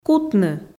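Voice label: "кутны", Komi, female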